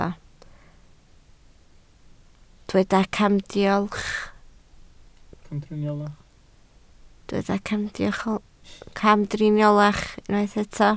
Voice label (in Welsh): "camdriniolach", Welsh